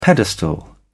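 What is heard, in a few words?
"pedestal", English, British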